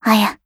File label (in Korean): attacked, KOR; Voice lIne; Eternal Return; Katja